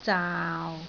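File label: "zaau6", Cantonese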